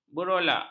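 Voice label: "berolak", Malay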